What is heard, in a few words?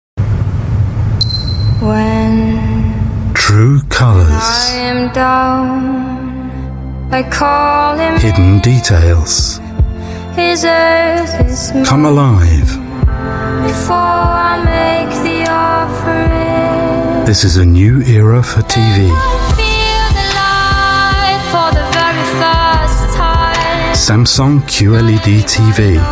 UK English TVC; Samsung QLED TV